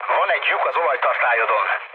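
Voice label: Hungarian damaged oil mood low, speech; War Thunder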